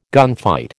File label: "gunfight", American